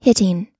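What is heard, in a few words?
"hitting", American English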